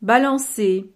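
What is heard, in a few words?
"balancer", French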